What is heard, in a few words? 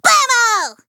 first blood, Worms speechbanks; Hat Kid